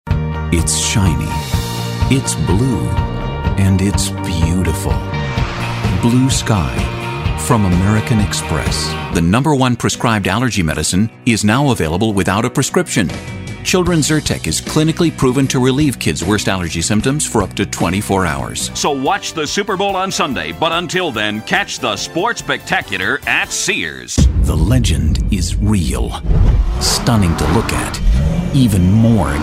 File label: Commercial